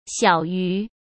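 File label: "小于", Mandarin Chinese; chinese-flashcards; hsk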